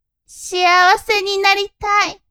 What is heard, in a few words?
woman